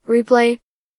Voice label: Kid Replay Voice